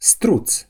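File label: "ostrich", Romanian; gcompris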